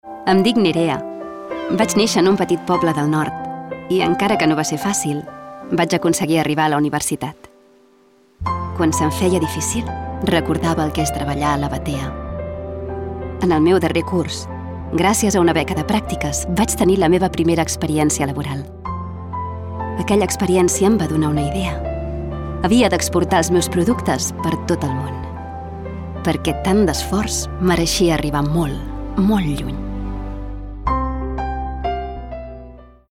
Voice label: female catalan comercial; ideasonora